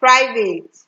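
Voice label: "private", Nigerian Pidgin, female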